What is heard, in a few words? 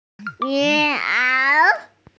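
"mian2ao3", Mandarin Chinese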